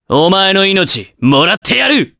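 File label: Vox Skill, voices, Japanese; kingsraid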